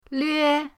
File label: "lve1", pinyin